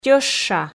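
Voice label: "тьӧща", Komi, female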